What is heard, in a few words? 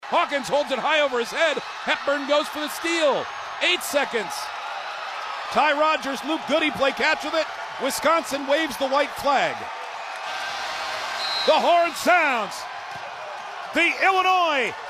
final call illinois wins 93 87